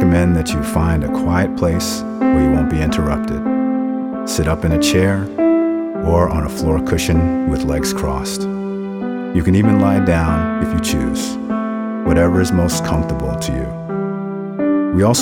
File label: Clear Sample, audio meditation